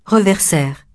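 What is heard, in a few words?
"reverser", French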